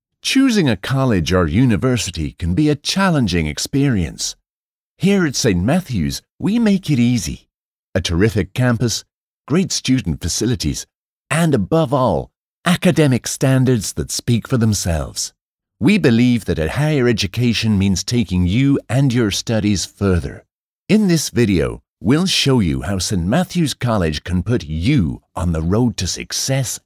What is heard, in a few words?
Irish; accent voices; irish | natural